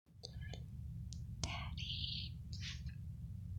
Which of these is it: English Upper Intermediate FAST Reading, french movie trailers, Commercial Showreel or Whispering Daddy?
Whispering Daddy